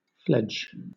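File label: "fledge", British English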